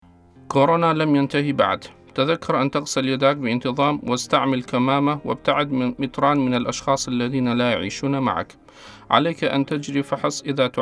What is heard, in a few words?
Arabic